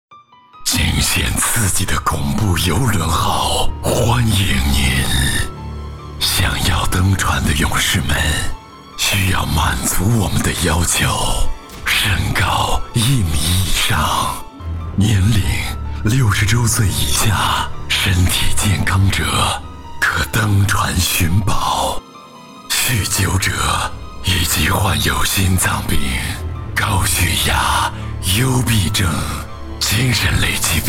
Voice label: 男 中文 鬼屋 游乐园 恐怖类

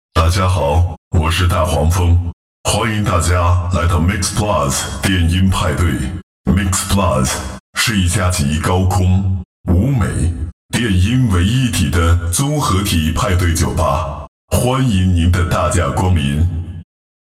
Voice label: 男 中英文 酒吧类; 大黄蜂

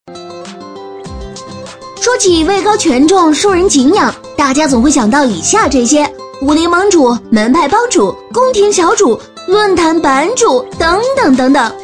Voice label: 女 飞碟说; 链学圈

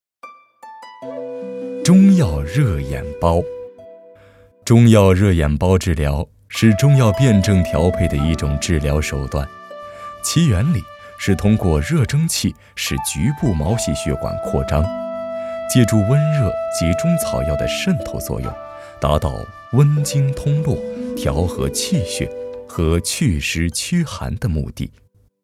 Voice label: 男 娓娓道来; 记录; 灯芯草

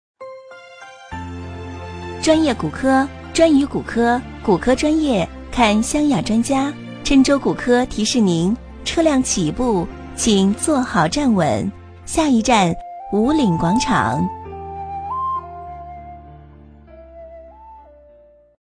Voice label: 女 广告 骨科; 郴州骨科

